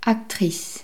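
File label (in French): "actrice", French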